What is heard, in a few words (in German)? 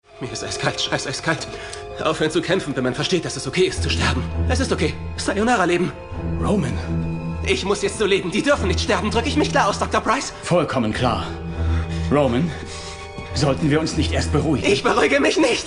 synchron; Nervenzusammenbruch; Hemlock Grove